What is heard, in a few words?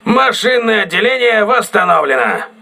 voice message engine room repaired, crew ships russian